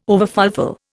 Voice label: "overfulfil", Indian

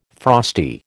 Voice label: "frosty", American

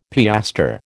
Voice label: "piastre", American

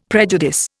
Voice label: "prejudice", Indian; Dictionary

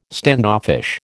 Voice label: "standoffish", American

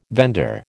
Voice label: "vendor", American

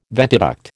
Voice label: "ventiduct", American

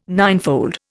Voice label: "ninefold", Indian

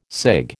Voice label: "cig", American

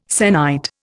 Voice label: "sennight", Indian

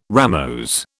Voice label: "ramose", British

